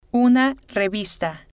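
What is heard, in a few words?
una Revista, Spanish, female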